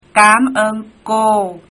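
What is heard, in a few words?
thanks Vietnamese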